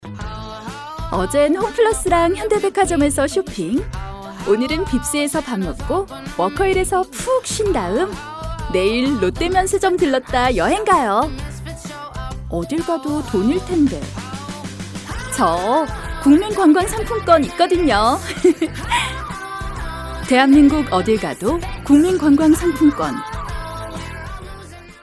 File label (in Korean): 여성 (Female); 광고